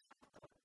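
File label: "чагтор", Komi, female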